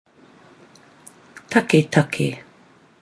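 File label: "taketake" endemic, Māori word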